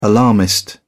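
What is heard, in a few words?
"alarmist", British English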